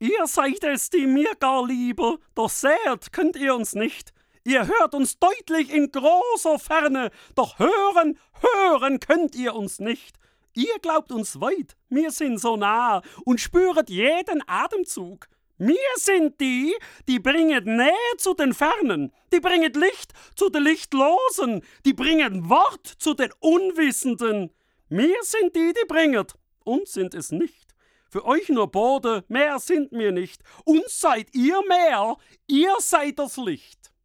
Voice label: schwaebisch, maenner

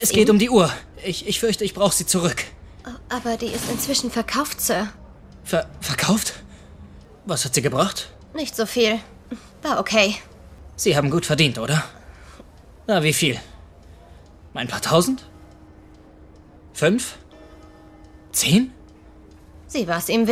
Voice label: Synchron, maenner; Snatch

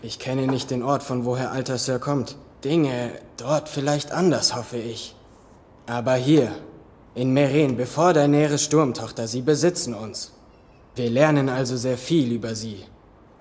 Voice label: Synchron, maenner; Game of Thrones

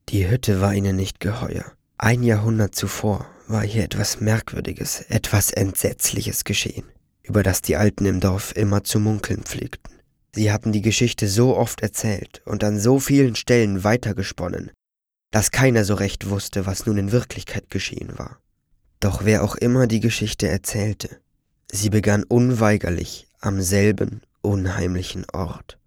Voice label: jungen; Die Hütte

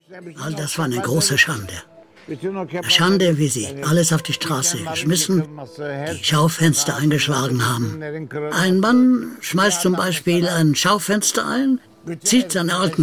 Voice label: Voice Over, maenner; Ara Güler

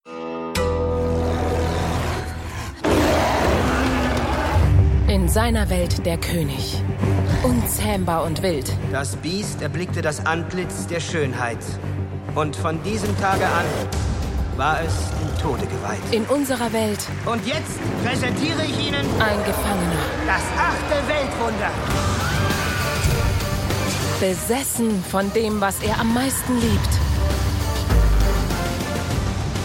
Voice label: Trailer, frauen; KingKong

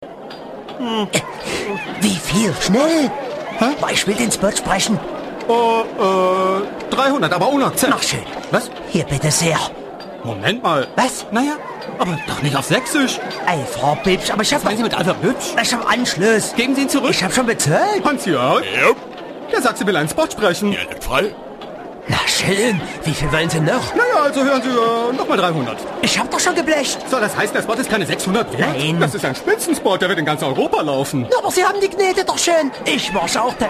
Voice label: saechsisch, maenner; Akzente+Dialekt; Leben der engelszungen

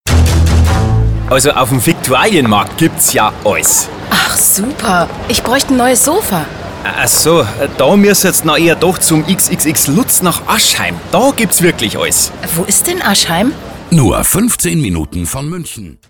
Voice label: Spots, Szene, frauen; XXXLutz; Viktualienmarkt

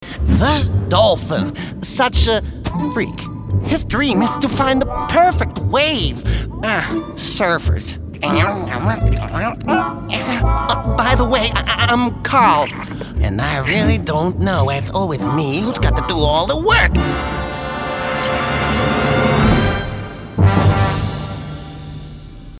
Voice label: Charge, maenner; Dolphin

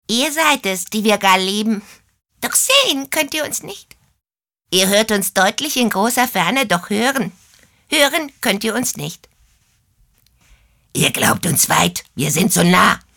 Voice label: charge, frauen; sprecher

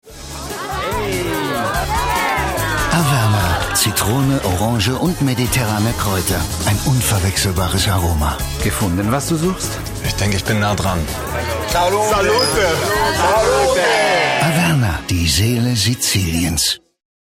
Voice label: Spots OFF, maenner; Averna

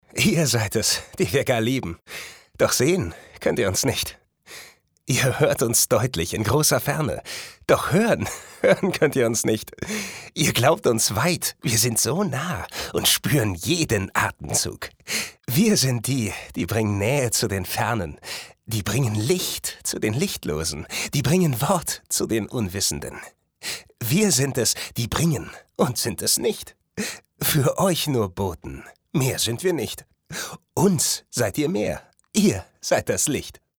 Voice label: froehlich, maenner

